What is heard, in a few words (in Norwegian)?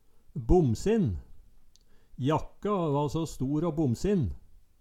bomsin, Numedalsmål